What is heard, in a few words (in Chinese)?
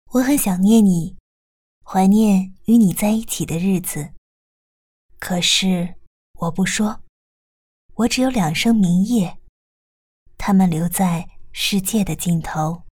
女配自然旁白